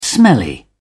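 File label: "smelly", British English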